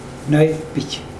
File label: my pig, mokilese